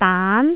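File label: "daan2", Jyutping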